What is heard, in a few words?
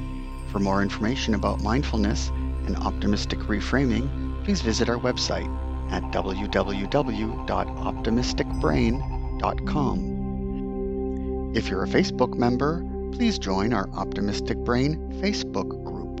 Thoughts Meditation with Music